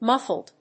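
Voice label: "muffled", English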